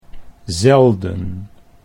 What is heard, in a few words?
"zelden", Dutch